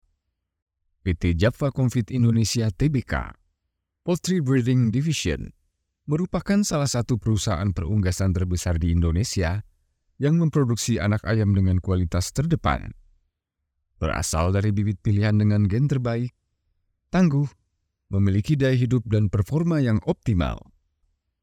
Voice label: INDONESIA FORMAL

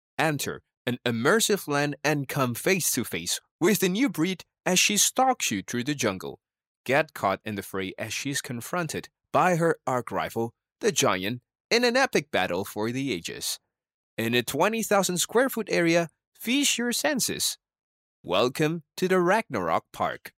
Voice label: English Casual

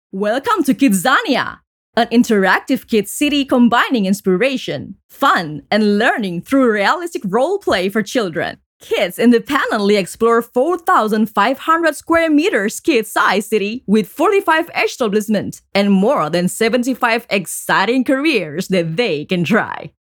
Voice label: English casual

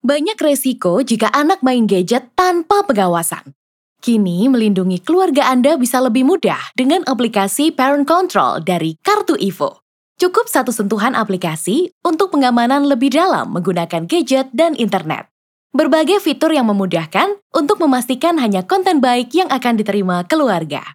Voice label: CASUAL ID

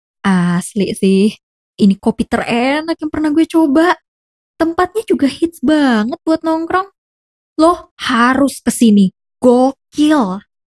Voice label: casual, Indonesia

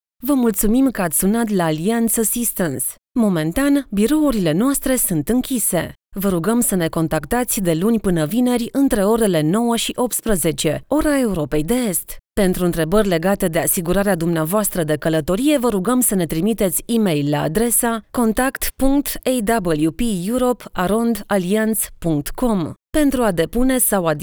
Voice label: Phone Message

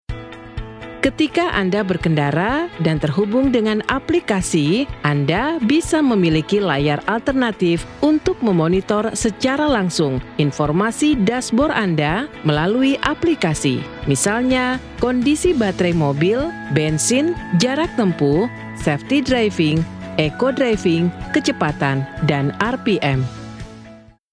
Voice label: INDONESIA CASUAL

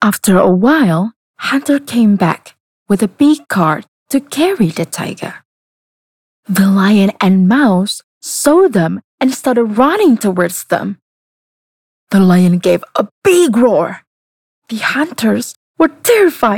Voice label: Karakter English

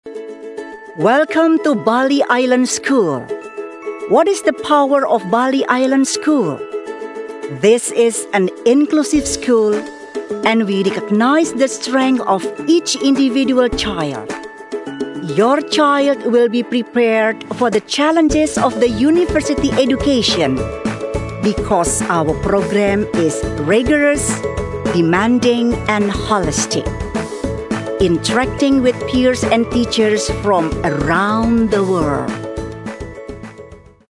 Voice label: English casual

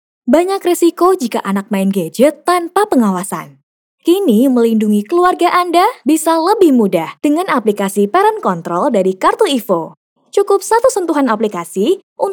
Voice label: casual; BAHASA INDONESIA

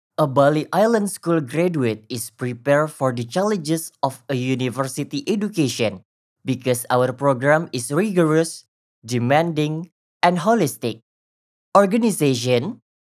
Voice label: FORMAL ENGLISH

